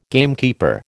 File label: "gamekeeper", American